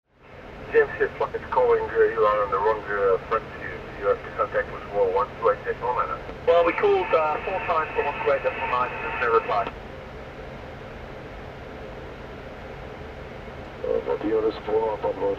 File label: aircraft radio message